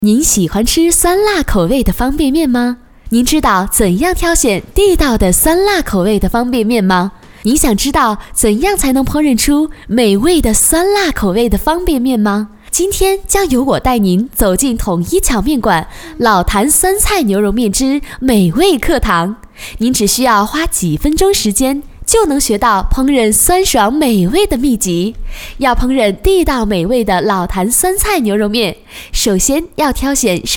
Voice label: girl, Chinese; niuroumian